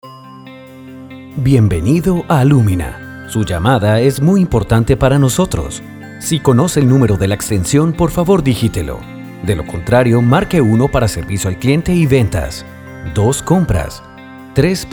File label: IVR; ALUMINA